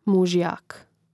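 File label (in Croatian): "muzijak", Hrvatski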